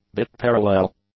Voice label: "bit-parallel", English; adjectives

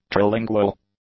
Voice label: "trilingual", English; adjectives

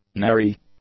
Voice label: "nary", English; adjectives

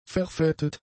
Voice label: "verfettet", German; adjectives

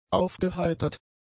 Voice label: "aufgeheitert", German; adjectives

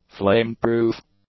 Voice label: "flame-proof", english; adjectives

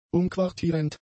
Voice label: "umquartierend", German; adjectives

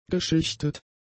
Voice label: "geschichtet", German; adjectives

